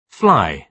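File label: "fly", British English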